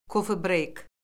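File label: "kofe-breyk", Azerbaijani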